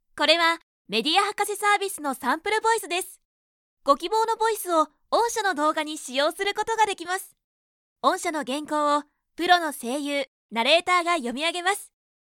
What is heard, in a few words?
high, narration sample voice women